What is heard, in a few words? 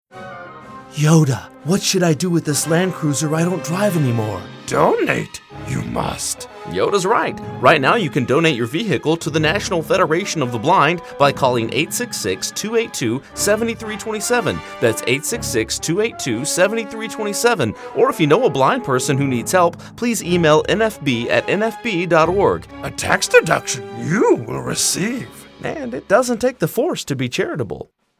car donation funny; vehicle donation; nfb